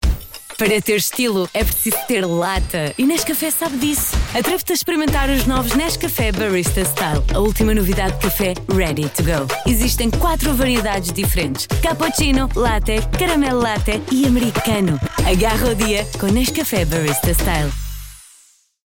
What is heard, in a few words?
Spot